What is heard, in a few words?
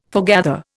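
"foregather", Indian English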